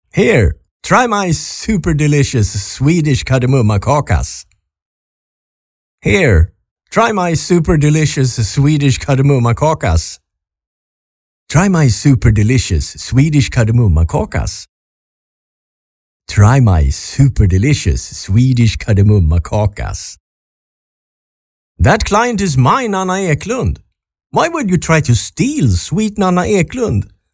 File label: Swenglish Dialogue